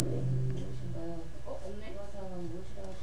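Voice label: "can", spoken word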